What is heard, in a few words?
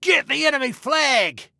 Attack Flag, Male